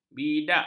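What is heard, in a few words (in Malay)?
"bidak", Malay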